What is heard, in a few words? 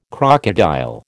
"crocodile", American